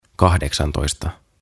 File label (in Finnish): "Kahdeksantoista" Eighteen; OTAVA KONSERNI